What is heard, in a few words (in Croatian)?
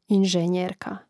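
"inženjerka", hrvatski; mreznik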